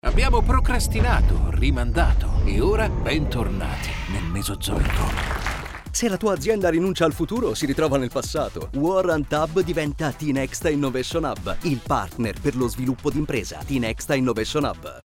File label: RADIO; LINEA EVOLUTION; campagna rebranding 2025